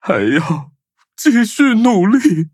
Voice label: defeat, zh-hant; cookie run